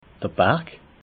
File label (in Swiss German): "barg", alsace